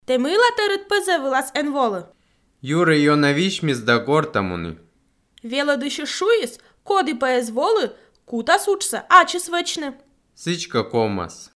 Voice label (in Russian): dialog